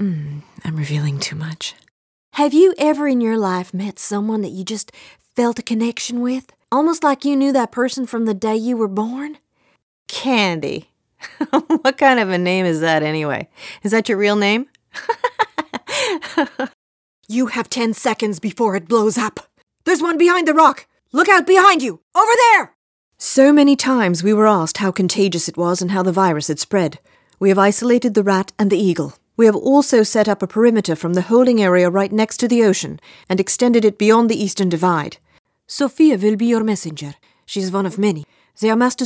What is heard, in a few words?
gaming voice